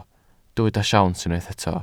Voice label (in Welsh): "siawns", Welsh